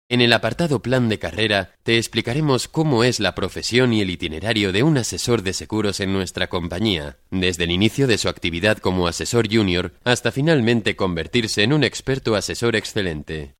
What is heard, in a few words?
locutor spanish voiceover